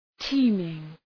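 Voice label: "teeming", English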